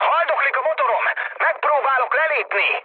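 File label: Hungarian damaged engine mood med, speech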